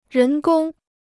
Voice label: "人工", Chinese